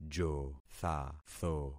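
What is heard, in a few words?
"jothatho", concatenated syllables; Affixing experiment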